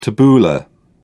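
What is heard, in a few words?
"tabbouleh", British English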